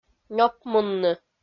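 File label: "нёпмунны", Komi, female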